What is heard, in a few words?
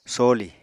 "some", Apatani